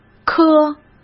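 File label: "科", Mandarin Chinese; chinese-flashcards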